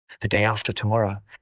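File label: Voice Acting es M, Spanish, male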